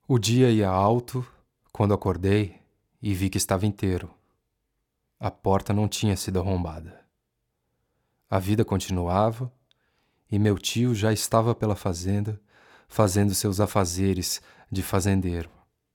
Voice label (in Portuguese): NARRACAO